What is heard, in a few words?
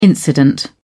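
"incident", British English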